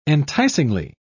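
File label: "enticingly", English, British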